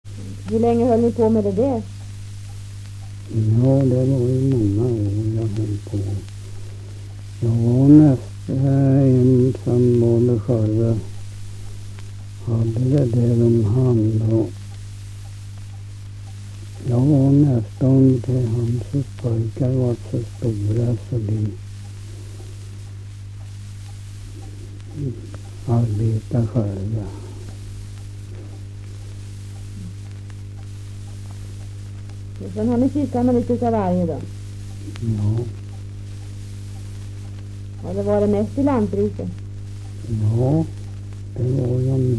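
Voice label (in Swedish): Ramundeboda Närke, dialekter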